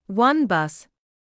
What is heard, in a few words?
"one bus", English